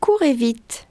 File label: french run fast; samsung YP-U5; Audio User Interface